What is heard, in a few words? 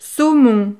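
"saumon", French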